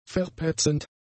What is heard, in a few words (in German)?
"verpetzend", german; adjectives